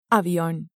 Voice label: "avion", Spanish